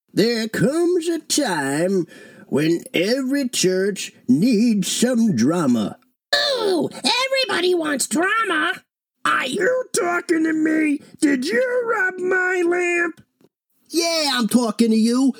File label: Character Voices